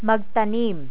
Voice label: "magtanim", Tagalog